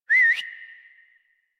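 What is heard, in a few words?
horse start adult, English; Warframe; Duviri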